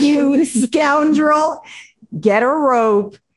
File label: upset; beth; fertig classic games